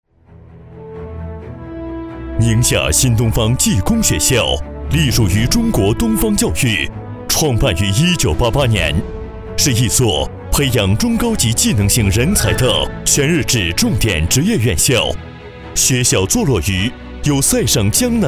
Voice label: 男 专题片 浑厚大气; 宁夏新东方

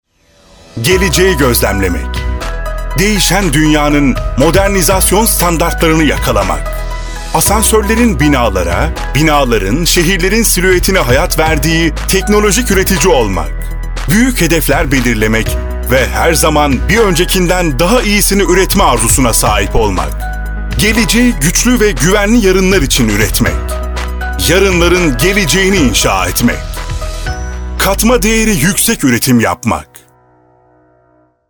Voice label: 土耳其语男 激情

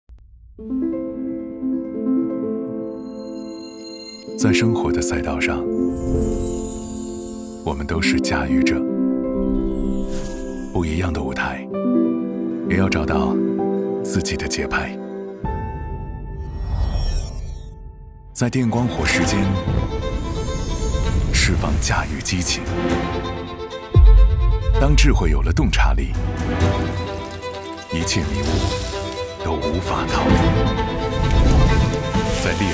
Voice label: 男 厨电; 迅达厨电

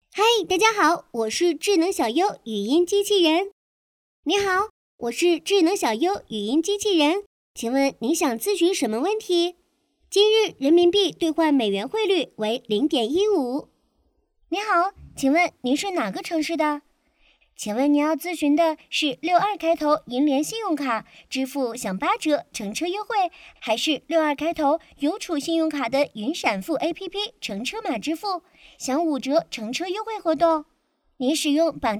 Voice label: 女 语音机器人

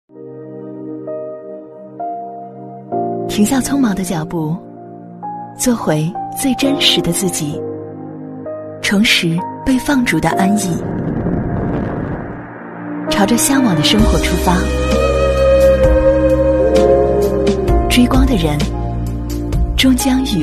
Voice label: 女 创新纯电动; BMW ix3

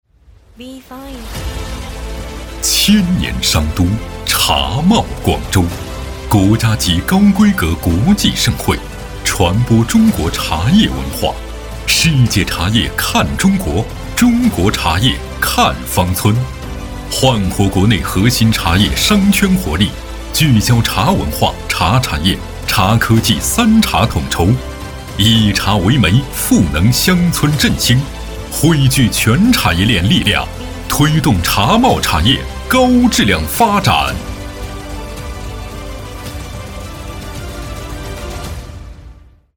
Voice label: 男 宣传 企业 片 大气高端; 广州国际茶产业大会

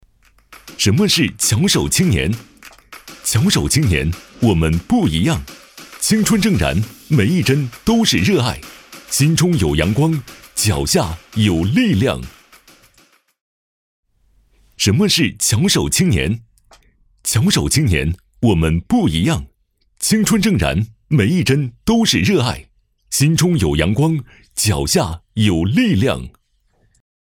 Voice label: 男 宣传片 活力 青年

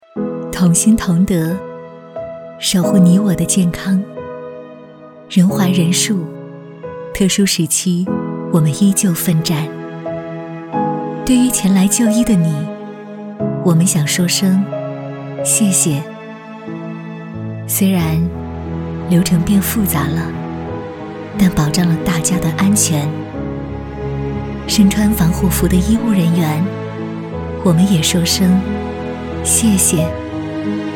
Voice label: 女 自然旁白; 医院

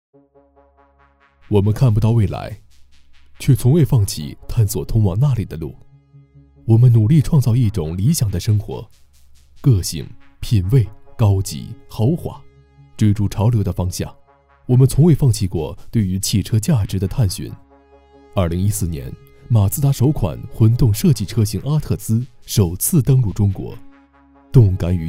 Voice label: 男 舒缓广告; 阿特兹

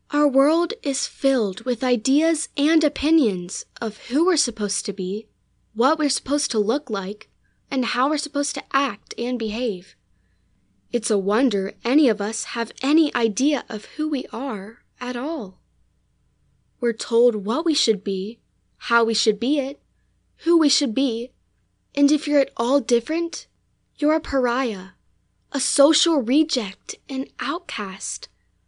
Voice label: 男童声 低沉旁白

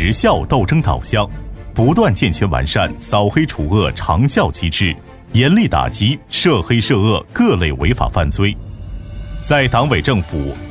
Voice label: 男 专题 公安

